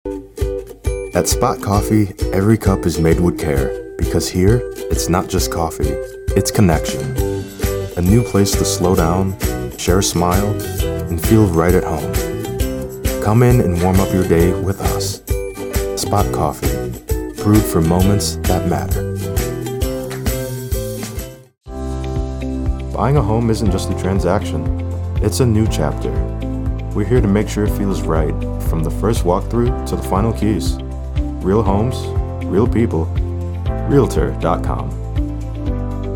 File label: Commercial